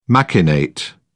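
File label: "machinate", British English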